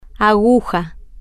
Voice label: "aguja", Spanish